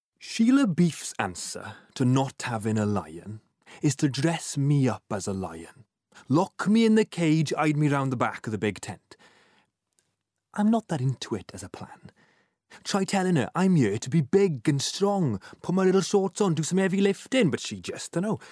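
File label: Welsh English, male, voice over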